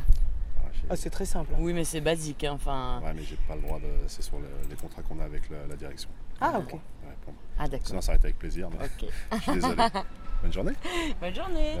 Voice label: Micro trottoir Pour ou contre Chalon dans la rue

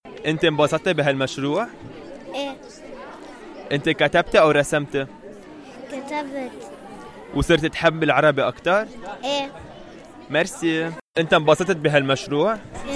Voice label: microtrotoir eleve

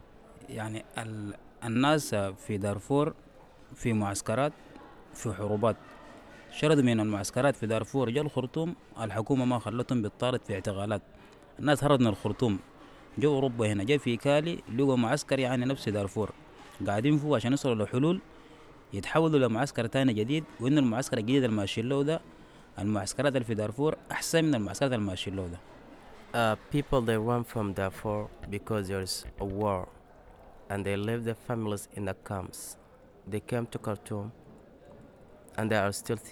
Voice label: interview autour du feu

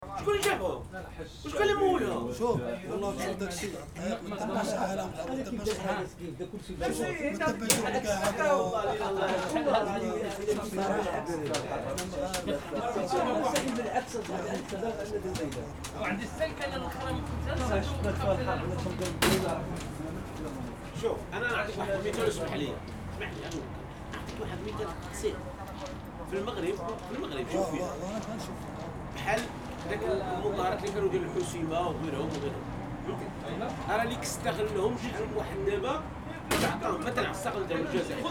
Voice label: Ambiance Conversation

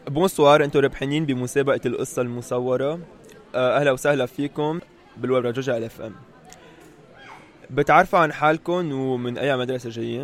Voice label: micro trottoir; gagnant lycee montaigne

